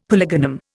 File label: "polygonum", Indian; Dictionary